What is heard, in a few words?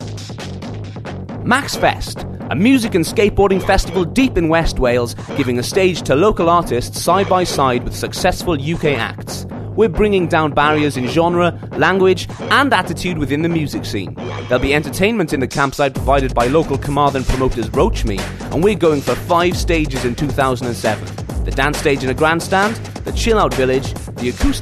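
Welsh English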